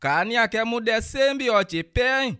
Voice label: pay, bassa